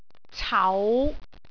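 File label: "cau5", Cantonese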